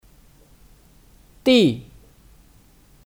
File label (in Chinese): "第", Dì; Ke - (urutan)